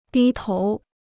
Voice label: "低头", Chinese